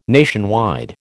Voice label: "nationwide", American

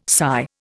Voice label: "sigh", Indian

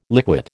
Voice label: "liquate", American

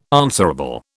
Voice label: "answerable", British

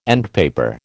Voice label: "endpaper", American

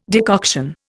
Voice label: "decoction", Indian

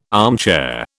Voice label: "armchair", British

